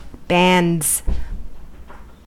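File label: "bands", US English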